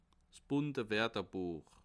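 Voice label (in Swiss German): "bunte", Bas Rhin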